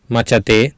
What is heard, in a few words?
"macate", Sanskrit